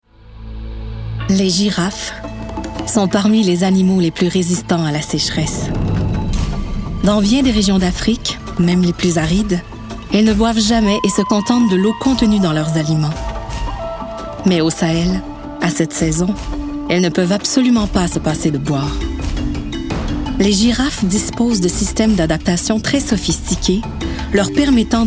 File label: Narration